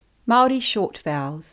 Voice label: māori short vowels; Lesson books